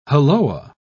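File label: "halloa", British English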